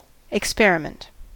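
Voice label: "experiment", American English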